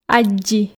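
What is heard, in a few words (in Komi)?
"аддзи", Komi, female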